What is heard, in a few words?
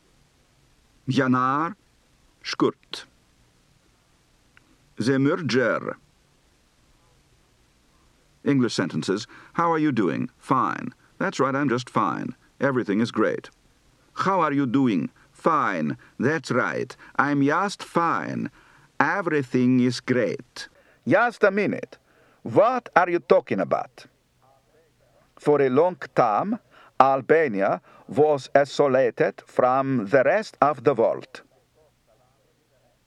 Albanian, Accent